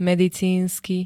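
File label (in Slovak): "medicínsky", Slovak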